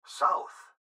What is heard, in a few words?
Gps Voice, Male